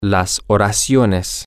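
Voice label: sentences, Spanish, male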